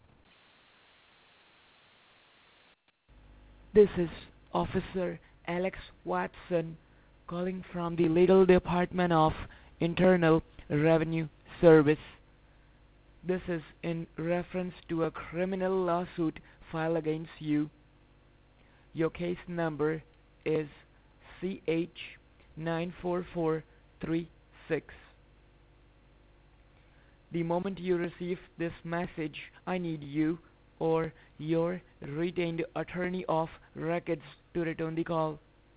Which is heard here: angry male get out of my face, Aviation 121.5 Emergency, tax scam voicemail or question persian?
tax scam voicemail